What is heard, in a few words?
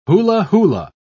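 "hula-hula", English, uk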